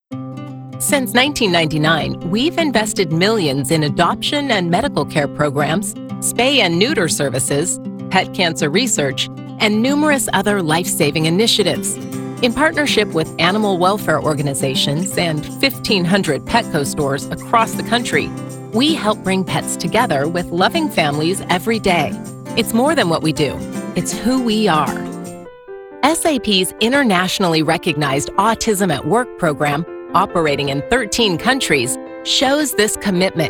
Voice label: english us and canada female corporate

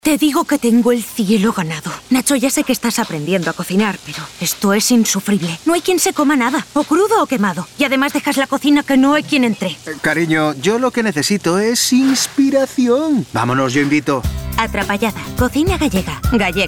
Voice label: spanish spain female generic

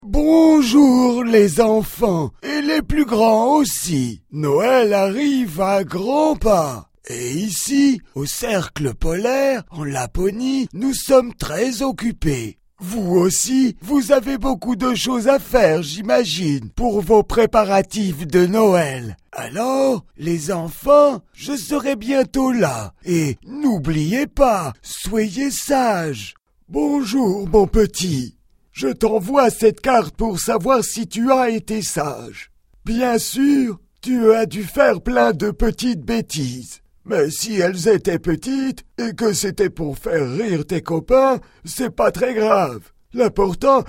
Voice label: french european male generic

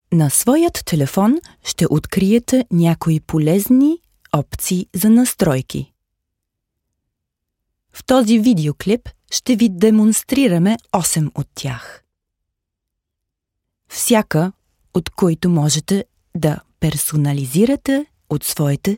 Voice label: bulgarian female corporate

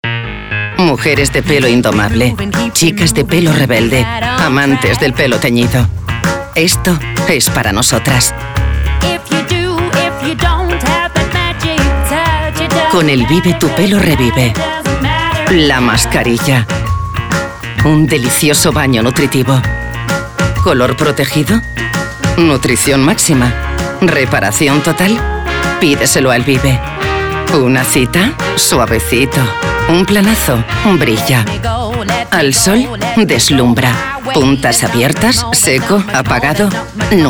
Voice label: spanish spain female commercial

